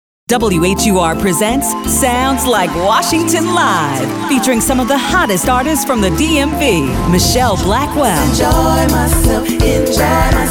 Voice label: promo